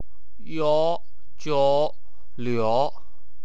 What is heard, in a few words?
"iao", wu chinese